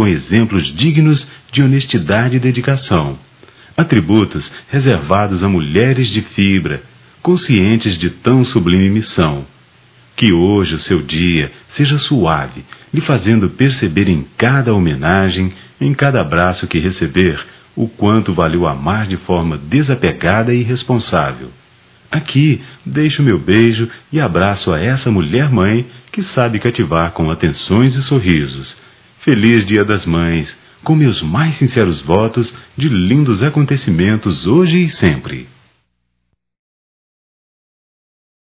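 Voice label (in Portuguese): Amiga para mãe, masculino; Dia das Maes; Telemensagens 2017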